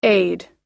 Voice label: "aid", us; 助ける、(…を)手助けする